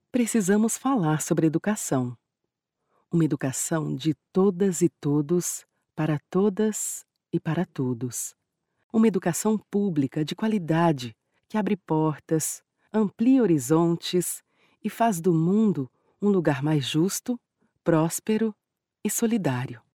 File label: portuguese brazilian narration